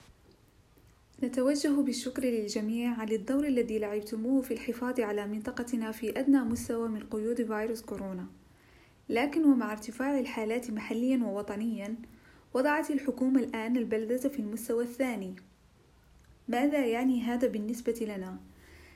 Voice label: arabic